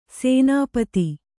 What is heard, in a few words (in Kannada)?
"ಸೇನಾಪತಿ", Kannada